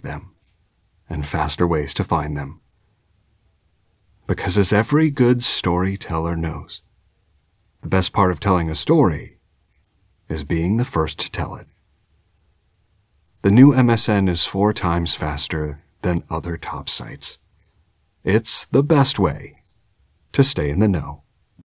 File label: storytellers